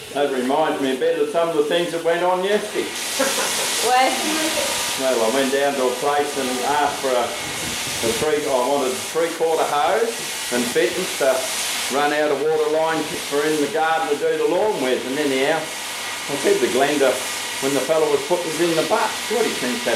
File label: Tasmania Australian White Male age 40; australia-oceania